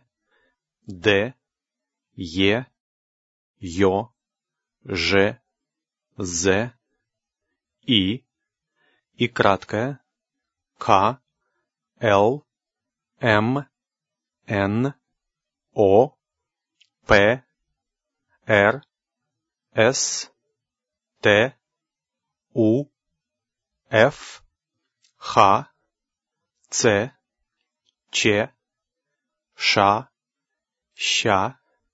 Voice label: ALPHABET LETTERS, russian